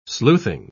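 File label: "sleuthing", British English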